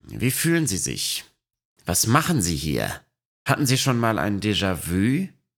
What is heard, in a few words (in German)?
Deutsch; Dr. Von Streck